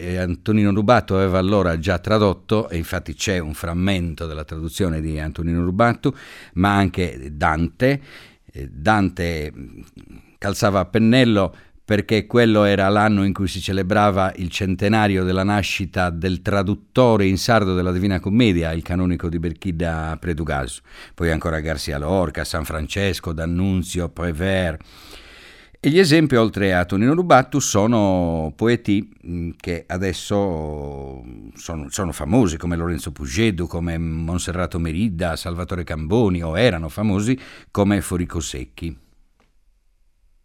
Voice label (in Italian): poesia in lingua sarda; archivio rai sardegna